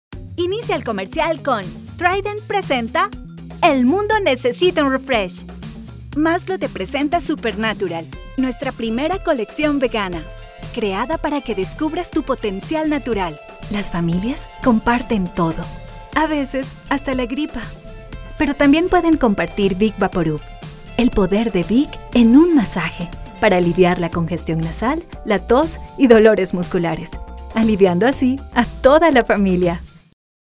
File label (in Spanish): american spanish voiceover locutora espanol neutro; Latin American spanish voice over